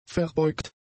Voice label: "verbeugt", German; adjectives

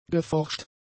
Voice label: "geforscht", German; adjectives

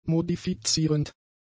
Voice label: "modifizierend", German; adjectives

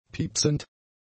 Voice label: "piepsend", German; adjectives

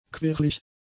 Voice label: "quirlig", German; adjectives

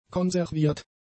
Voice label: "konserviert", German; adjectives

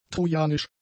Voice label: "trojanisch", German; adjectives

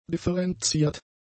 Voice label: "differenziert", german; adjectives

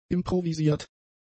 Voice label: "improvisiert", German; adjectives

